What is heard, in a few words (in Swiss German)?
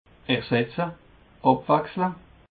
"ersetze", alsace